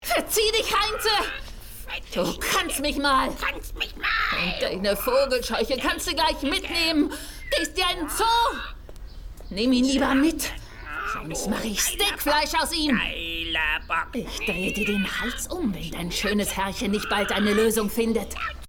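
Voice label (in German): Hörspiel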